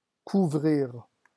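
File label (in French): "couvrira", French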